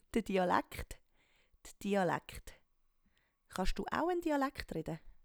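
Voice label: "de Dialaekt", Swiss German; Wortschatz Kapitel 0; Hauptwortschatz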